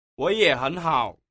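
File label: "woyehenhao", Mandarin Chinese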